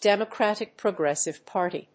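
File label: "Democratic Progressive Party", English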